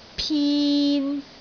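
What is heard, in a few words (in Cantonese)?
"pin3", Cantonese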